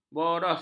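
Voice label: "boros", Malay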